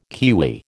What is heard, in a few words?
"kiwi", American